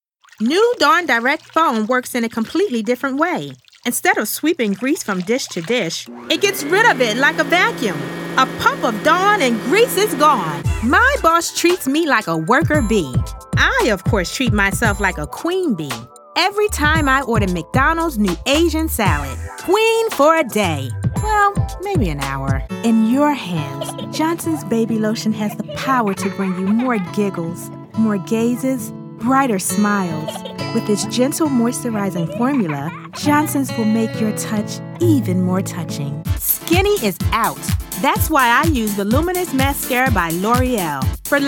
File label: commerical vo